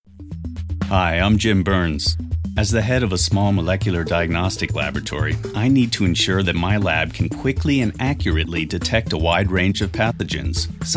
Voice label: american english male Voice over

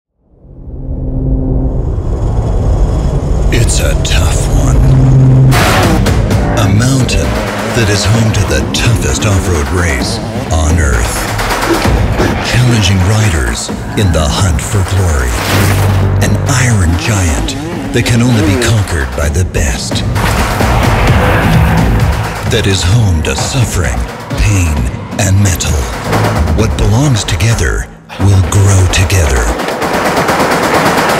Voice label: american english male; The Erzberg

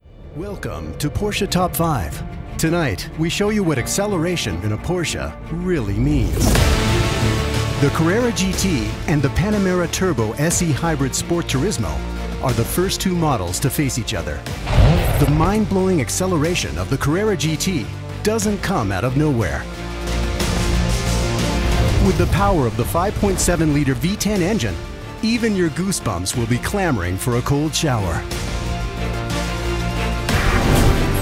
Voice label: american english male; Porsche

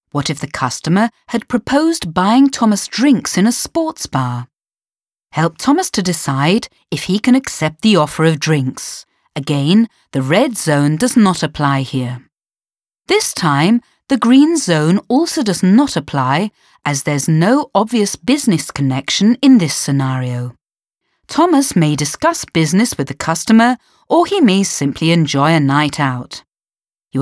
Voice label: british english female E Training

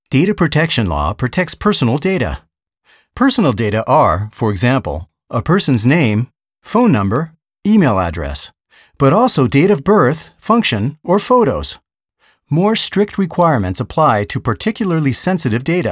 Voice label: american english male e-learning

